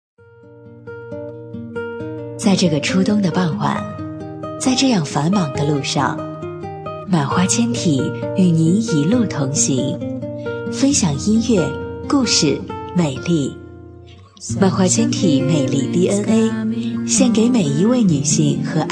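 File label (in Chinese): 【专题】优美专题, 女; 玛花纤体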